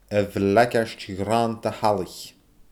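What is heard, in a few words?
"a bhleigeird ghraannda shalaich", Scottish Gaelic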